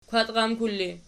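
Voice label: "kwkul" ergative, Archi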